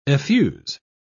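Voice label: "effuse", British English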